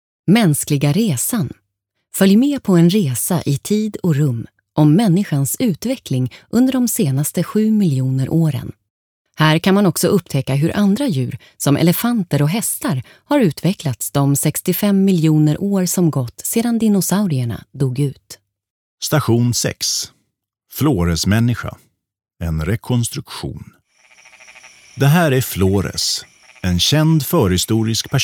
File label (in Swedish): audioguide swe; flores